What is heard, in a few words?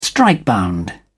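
"strikebound", English, British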